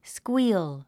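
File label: "squeal", English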